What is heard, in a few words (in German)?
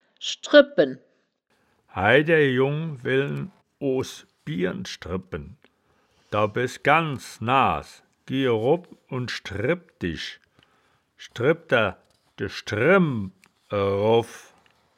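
"schdreb-bpen", Wittlicher Wörterbuch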